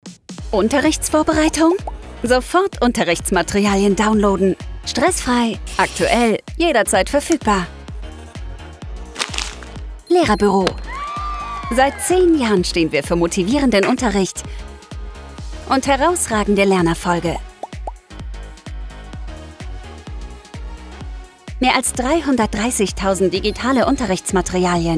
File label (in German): Erklaervideo dynamisch animierend; Lehrerbuero